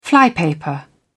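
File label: "flypaper", British English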